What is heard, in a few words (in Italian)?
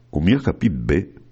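Ó mia capìt bé, bergamasco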